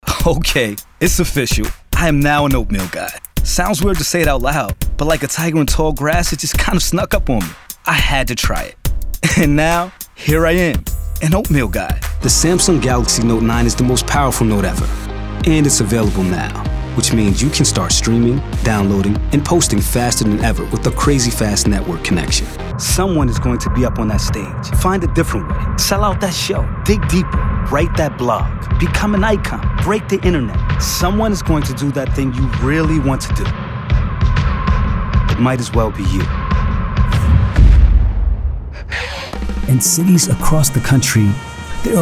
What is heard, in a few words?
Commercial